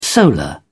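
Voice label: "solar", English, British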